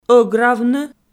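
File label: "ӧгравны", Komi-Zyrian, female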